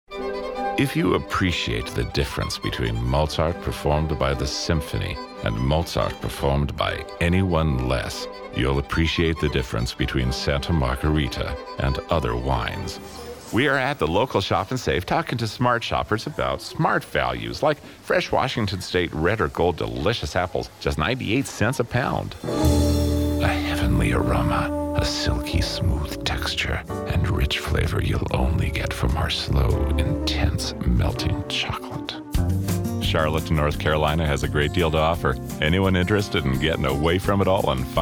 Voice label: Commercial